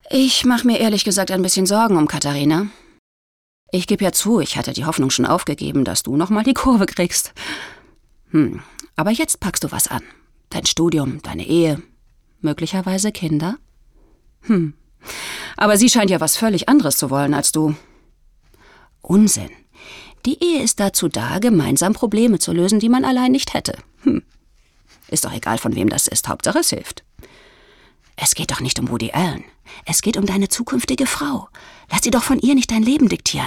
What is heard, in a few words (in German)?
Synchron